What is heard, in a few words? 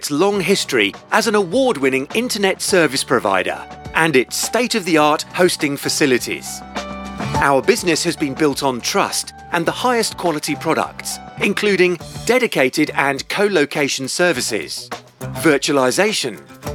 On Hold; Voice-over demos; on hold voices